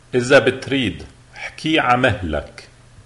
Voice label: slowly lebanese